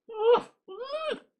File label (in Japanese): ダメージボイス; ポーズリクエストに応える回